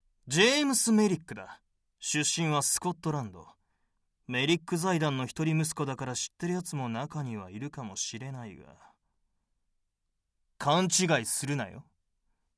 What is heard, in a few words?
narcissistic young man